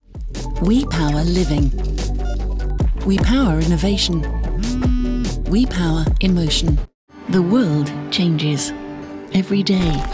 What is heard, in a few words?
english british corporate videos